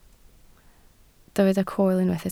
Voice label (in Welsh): "coel", Welsh